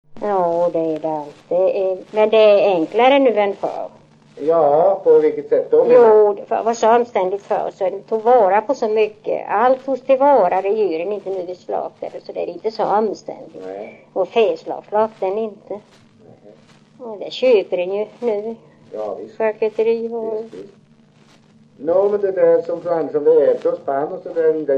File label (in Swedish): dialekter; Folkminnen; Svenska sagor